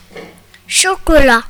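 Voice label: "chocolat", French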